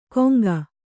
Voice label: "ಕೊಂಗ", Kannada